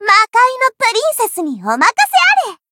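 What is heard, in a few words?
Voice encount second, Japanese; suzette; zodiac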